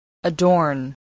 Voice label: "adorn", English voice